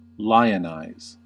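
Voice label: "lionize", US English; pronunciations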